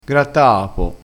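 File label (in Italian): "grattaapo", crespinese dialettale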